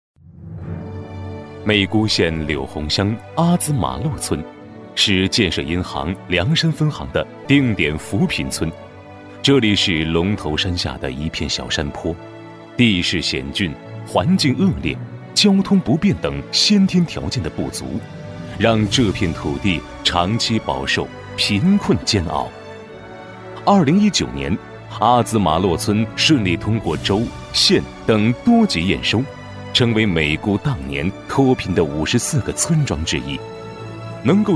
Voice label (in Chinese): 男 专题配音 走心大气; 贫困村的'娃娃书记'; 男88-抑扬顿挫; 沉稳大气